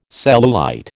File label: "cellulite", American